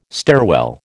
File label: "stairwell", American